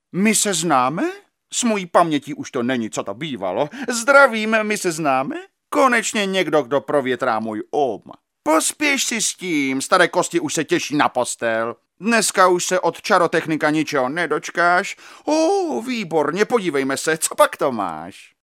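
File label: Male Czech drama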